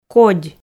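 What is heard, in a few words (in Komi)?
"кодь", Komi, female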